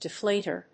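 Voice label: "Deflator", English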